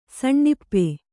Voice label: "ಸಣ್ಣಇಪ್ಪೆ", kannada